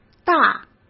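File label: "大", Mandarin Chinese; chinese-flashcards; hsk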